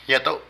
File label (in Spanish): Iglesia, Zapoteco de Petapa; Religión; Vocabulary